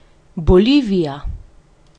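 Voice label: bolivia, Hebrew; gcompris-data; geography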